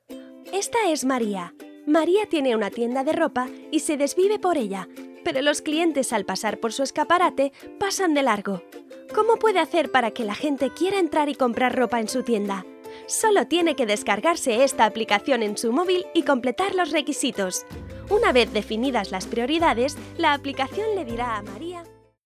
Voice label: Spanish - Castilian